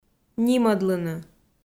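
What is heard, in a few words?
"нимӧдлыны", Komi-Zyrian, female; kpv audio dict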